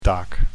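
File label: "taak", Dutch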